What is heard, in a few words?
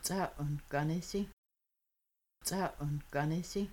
You might dry the dishes, Kaska; Washing Dishes